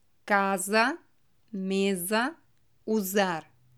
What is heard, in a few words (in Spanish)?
sonido de S suave, portugues; como se pronuncia la letra s en portugues